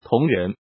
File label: "tongren", pinyin